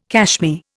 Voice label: "cashmere", Indian